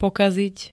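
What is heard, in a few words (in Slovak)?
"pokaziť", Slovak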